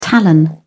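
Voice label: "talon", British English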